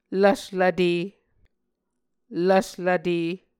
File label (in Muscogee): "lvslvte", Muscogee